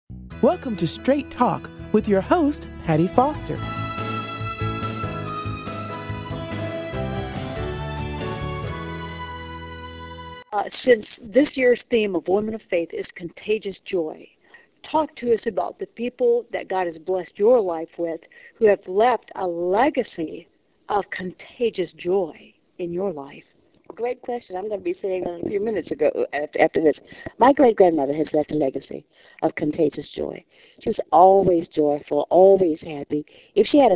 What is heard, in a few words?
Interview